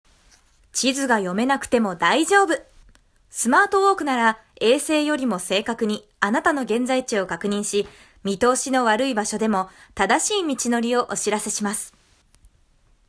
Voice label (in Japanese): aoi kokoro vs narration